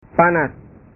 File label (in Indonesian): "panas", bahasa